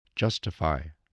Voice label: "justify", English

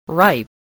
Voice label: "ripe", English spelling

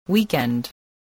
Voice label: "weekend", spelling

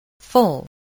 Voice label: "full", English voice, spelling

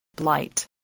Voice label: "blight", spelling

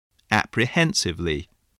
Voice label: "apprehensively", English

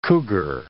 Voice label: "cougar", English

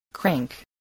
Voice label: "crank", voice spelling

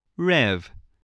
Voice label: "rev", English

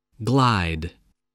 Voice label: "glide", voice

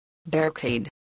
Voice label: "barricade", English

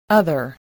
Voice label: "other", English voice

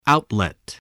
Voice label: "outlet", English voice

